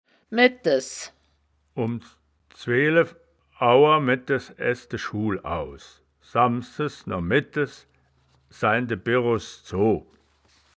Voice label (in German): "medtes", Wittlicher; das wittlicher woerterbuch; woerterbuch vertonung